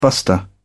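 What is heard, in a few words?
"buster", English, British English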